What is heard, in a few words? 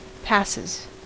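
"passes", US English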